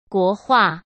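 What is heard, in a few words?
"国画", Mandarin Chinese; chinese-flashcards; hsk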